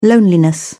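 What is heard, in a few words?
"loneliness", British English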